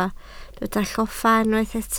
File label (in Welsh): "lloffa", Welsh